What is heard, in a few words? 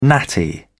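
"natty", British English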